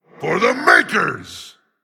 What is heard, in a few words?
Male Tolvir Attack